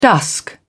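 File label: "dusk", British English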